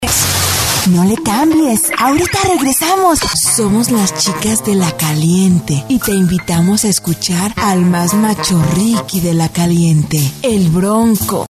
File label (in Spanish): locutora mexicana; Locutores de México